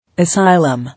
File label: "Asylum", English